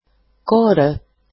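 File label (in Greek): "kore", Greek erasmic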